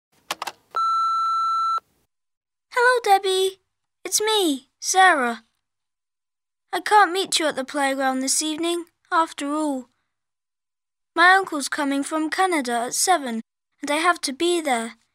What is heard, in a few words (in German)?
Ansagen auf einem Anrufbeantworter; Englisch 7 8